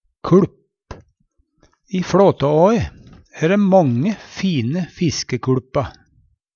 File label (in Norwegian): kuLp, Numedalsmål